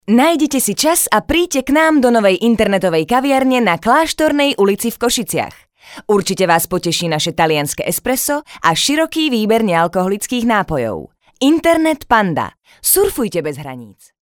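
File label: Slovak, female, commercial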